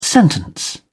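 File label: "sentence", British English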